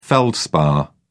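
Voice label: "feldspar", British English, dictionary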